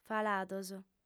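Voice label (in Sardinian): "falados", female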